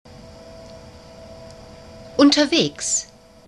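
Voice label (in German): "unterwegs", German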